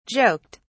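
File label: "joked", British English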